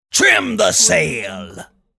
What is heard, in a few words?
pirate saying trim the sail